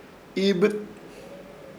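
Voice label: "Iibɨt", Chamacoco word, estuche de agua; Ishir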